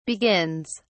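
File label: "begins", en, uk British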